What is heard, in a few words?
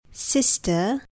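"sister", English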